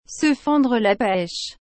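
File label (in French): "se fendre la pêche", French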